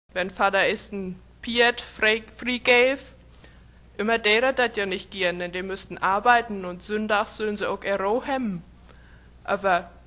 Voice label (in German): Mecklenburgisch; Niederdeutsch